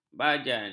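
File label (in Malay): "bajan", Malay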